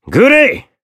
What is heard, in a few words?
Voice attack jp; hardy